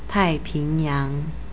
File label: "taipingyang", Chinese; Pacific = Tai4-ping2-yang2